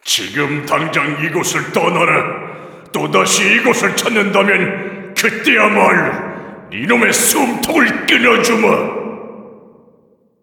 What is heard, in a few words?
cinematic voice; lineage2